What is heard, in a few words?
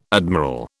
"admiral", British